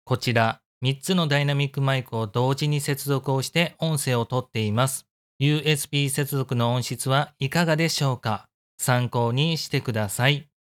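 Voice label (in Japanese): maono PD200X USB接続音声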